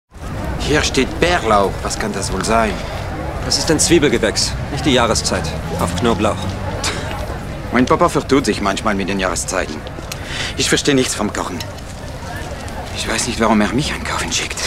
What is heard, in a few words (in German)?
Synchro Deutsch; synchron; MUENCHEN SPIELBERG